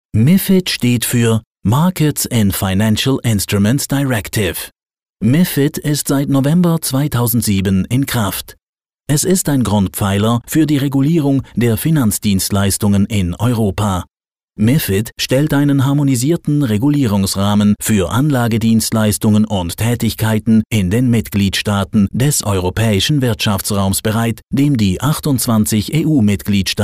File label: german male elearning, Multilingual Swissgerman Male Voice